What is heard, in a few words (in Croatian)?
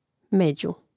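"među", Croatian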